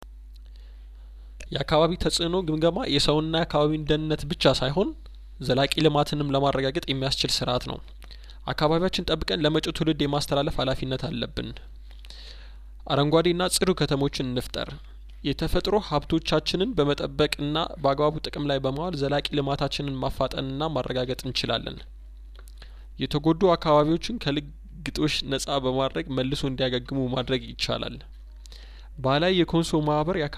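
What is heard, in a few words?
Amharic, voice over dubbing, male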